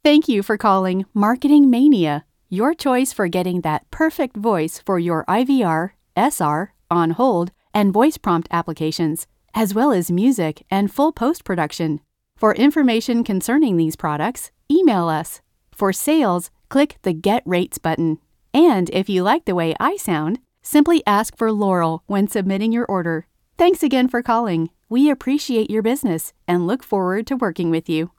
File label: Voice Sample, female voice